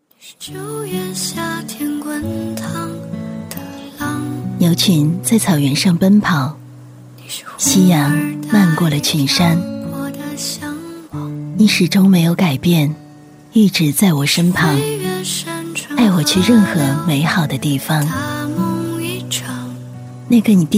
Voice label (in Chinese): 女 唯美婚礼独白